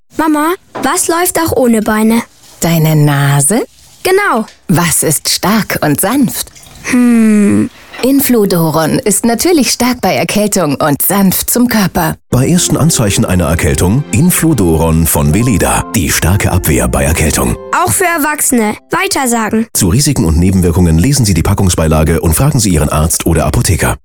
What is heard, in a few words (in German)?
Werbung; Weleda